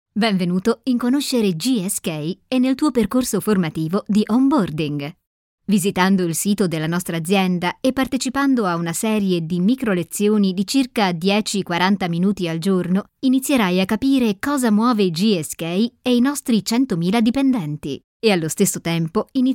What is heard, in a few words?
italian female e-learning